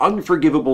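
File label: "unforgivable", US English; pronunciations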